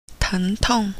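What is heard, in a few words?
pain, Chinese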